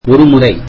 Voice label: tamil once

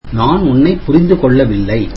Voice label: tamil I dont understand you

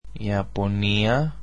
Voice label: "Japan" greek

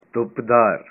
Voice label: sunny, punjabi

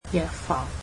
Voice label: "pharmacy", Cantonese

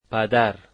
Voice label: father, Dari

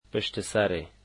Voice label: behind, dari

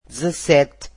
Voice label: "seventeen", Portuguese

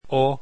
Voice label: "o", Esperanto alphabet

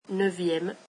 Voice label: french ninth

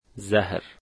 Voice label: "poison", Dari

